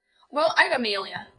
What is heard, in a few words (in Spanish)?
Voice Acting es